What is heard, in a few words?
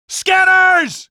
scanners, male; Half-Life 2